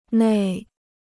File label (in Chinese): "内", Chinese